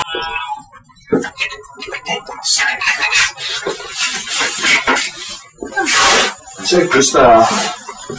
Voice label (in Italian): probabile voce di origine non umana sembrerebbe dire adepto seguimi